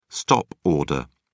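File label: "stop order", English, British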